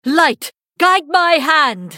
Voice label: female draenei start